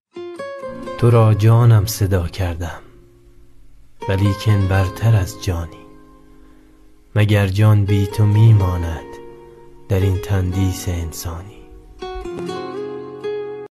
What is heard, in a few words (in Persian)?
دکلمه; تو را جانم صدا کردم